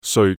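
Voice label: "seoi6", Cantonese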